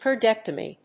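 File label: "cordectomy", English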